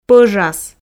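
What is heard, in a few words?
"пӧжас", Komi, female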